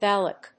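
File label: "ballock", English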